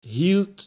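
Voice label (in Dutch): "hield" Dutch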